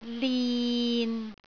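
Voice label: "lin6", Cantonese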